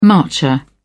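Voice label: "marcher", English, British English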